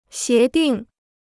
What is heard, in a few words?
"协定", Chinese